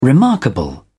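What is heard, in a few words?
"remarkable", British English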